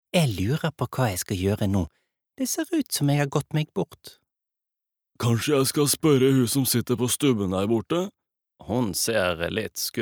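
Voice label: no dub character, Norwegian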